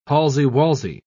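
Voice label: "palsy-walsy", British English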